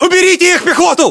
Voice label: order attack infantry, battlechatter, ru; localized english